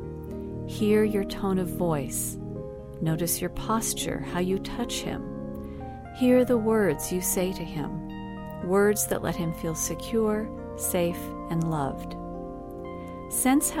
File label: Calm Authority